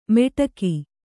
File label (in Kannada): "ಮೆಟಕಿ", Kannada